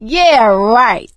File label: taunt, survivor voice; Left 4 Dead 2; producer